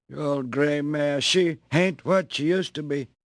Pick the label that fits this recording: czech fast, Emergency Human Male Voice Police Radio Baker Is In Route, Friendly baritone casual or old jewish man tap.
old jewish man tap